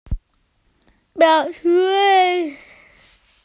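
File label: "white cloud", Mandarin; bai2yun2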